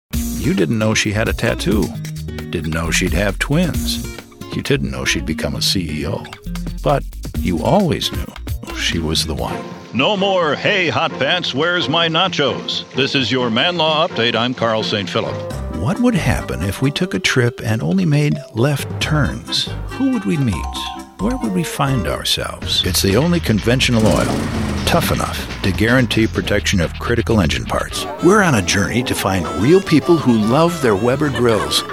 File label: Commercial